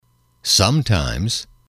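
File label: "sometimes", English, male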